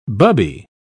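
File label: "bubby", English, British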